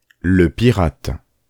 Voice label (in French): "Le Pirate", Dictée de mots